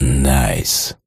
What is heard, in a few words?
shoutout en; jewels